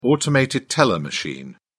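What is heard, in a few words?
"automated teller machine", English, British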